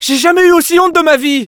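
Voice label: Scout jeers, French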